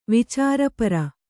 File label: "ವಿಚಾರಪರ", Kannada